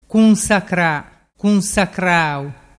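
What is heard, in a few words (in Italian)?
"cunsacracrau", Vernazzese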